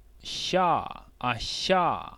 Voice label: Voiceless palatal fricative